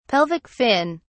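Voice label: "pelvic fin", English, British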